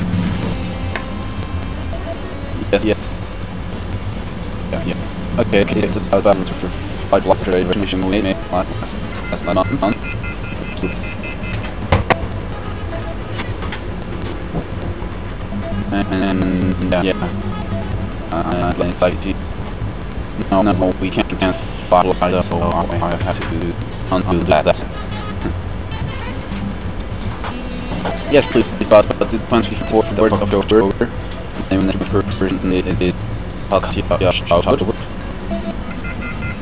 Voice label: telephone garble